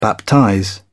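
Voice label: "baptize", British English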